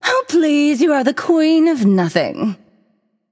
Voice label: taunt directed; hera; smite; nox

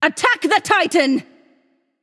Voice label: vox attack m; smite; nuwa; voicepack

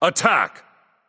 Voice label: vox attack; smite; hades

